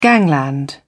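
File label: "gangland", English, British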